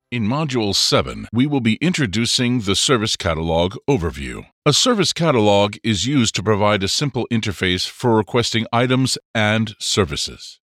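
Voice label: elearning English USA Male E-learning; Elearning Sample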